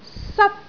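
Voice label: "sat1", Cantonese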